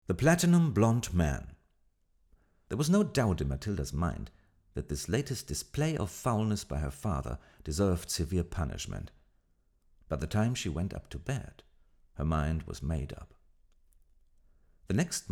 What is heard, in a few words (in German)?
Englisch Hörbuch; Matilda